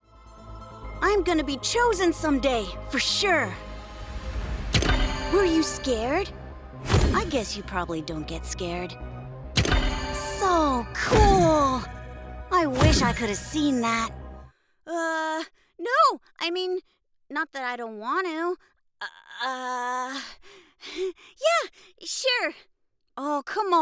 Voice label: Character Kids